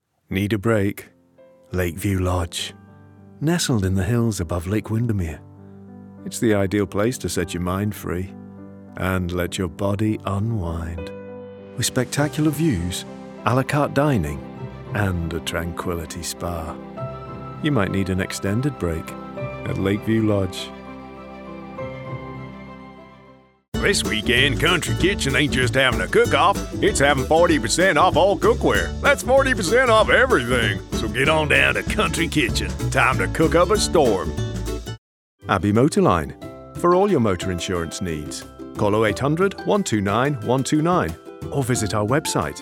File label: Commercial